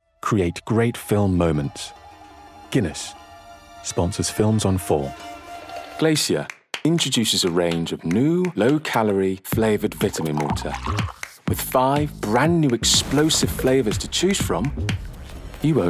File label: Commercial Mix; Natural Accents: London, Neutral